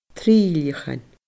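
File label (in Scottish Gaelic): "trilleachan", Scottish Gaelic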